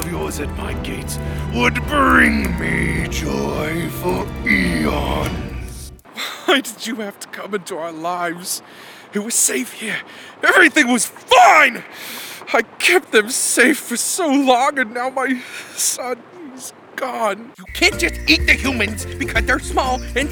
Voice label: Animation, Professional Male Voice Over Talent; Toronto based bilingual actor/voice actor known primarily for his anim